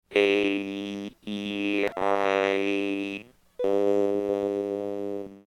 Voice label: rt lpc 4 vowels